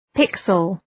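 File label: "pixel", English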